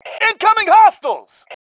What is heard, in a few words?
incoming enemy, male